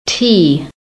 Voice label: "TEA", american; PHONETIC